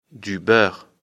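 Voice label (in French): "beurre", French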